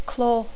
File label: "claw", English